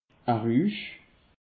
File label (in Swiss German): "rusch", alsace; peche